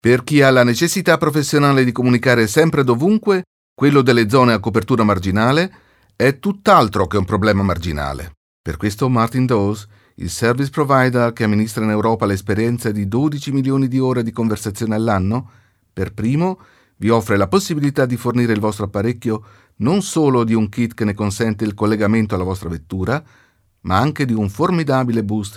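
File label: Italian, Kommentar Dynamisch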